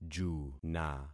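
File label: "juna", concatenated syllables; Affixing experiment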